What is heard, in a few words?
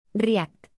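"react", English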